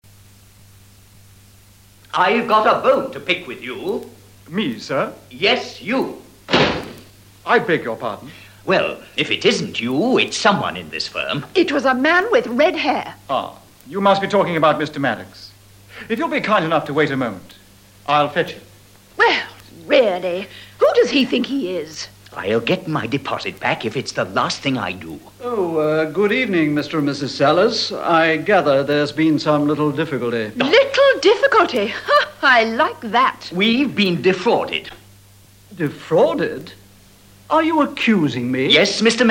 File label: dialog